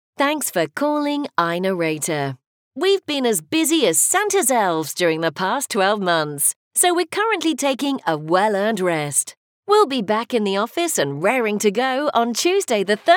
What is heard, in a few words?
Christmas Voicemail; iNarrator